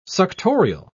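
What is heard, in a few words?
"suctorial", British English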